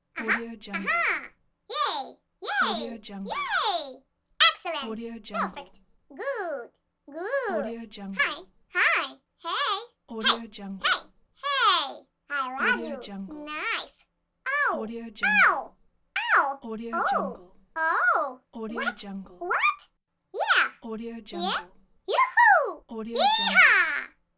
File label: cartoon character voice; cartoon character voice pack 1; moonaudioproduction